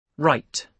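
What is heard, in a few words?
"rite", British English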